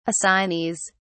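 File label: "assignees", British English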